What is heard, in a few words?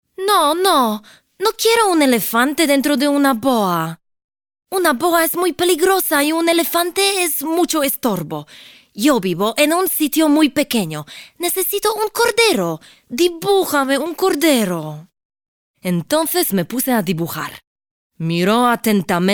ES